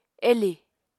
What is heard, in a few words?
"elì", Apatani